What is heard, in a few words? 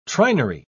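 "trinary", British English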